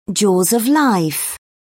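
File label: "jaws of life", British English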